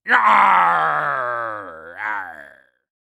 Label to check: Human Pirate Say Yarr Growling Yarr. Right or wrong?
Right